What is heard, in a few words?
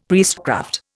"priestcraft", Indian; Dictionary